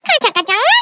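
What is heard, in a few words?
story, Japanese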